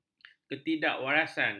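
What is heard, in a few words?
"ketidakwarasan", Malay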